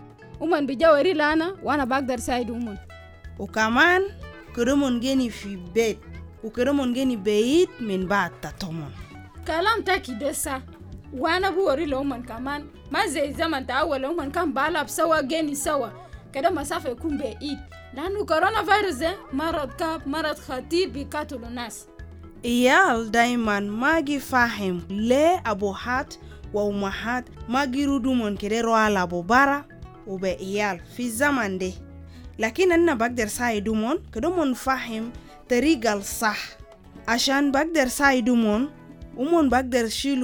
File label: PSA Arabic Our School PSA COVID-19 Social distancing